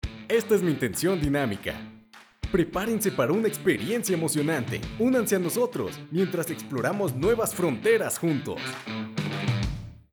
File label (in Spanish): Dinamica; locutor